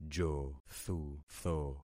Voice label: "jothutho", concat syllables; Affixing experiment